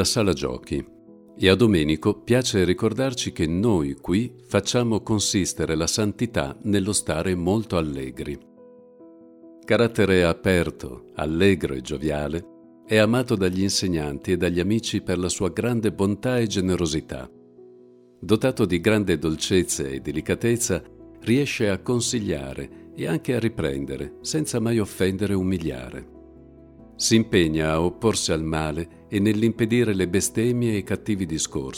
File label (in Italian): AUDIOGUIDE; Casetta Domenico Savio; Sala Giochi